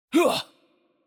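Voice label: Hurt Light, Chinese; bloom minigame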